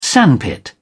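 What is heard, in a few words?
"sandpit", British English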